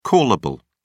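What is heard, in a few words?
"callable", British English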